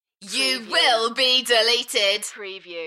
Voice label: UK female You will be deleted Enthusiastic; beanstalk audio

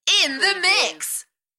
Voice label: UK female In the mix Enthusiastic; beanstalk audio

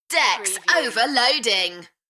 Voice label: UK female Enthusiastic; Decks overloading

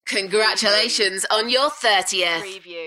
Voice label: UK female; Congratulations on your 30th

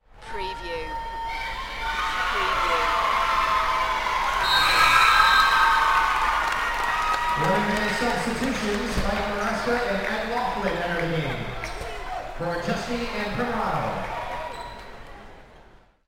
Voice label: Basketball Announcer Speaking with Crowd Cheering; lynne publishing

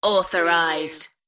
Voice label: "Authorized", UK female; beanstalk audio

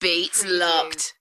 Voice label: UK female, Beats locked; beanstalk audio

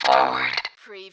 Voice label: Robot voice "forward"; lynne publishing

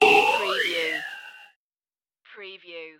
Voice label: robot voice "oh yeah"

